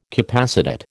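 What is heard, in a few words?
"capacitate", American English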